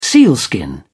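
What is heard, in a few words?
"sealskin", British English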